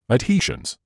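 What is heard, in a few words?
"adhesions", British English; ad-HEE-zhuhnz; ædˈhiː.ʒənz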